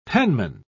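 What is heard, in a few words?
"penman", English, British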